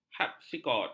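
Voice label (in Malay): "hapsikod", Malay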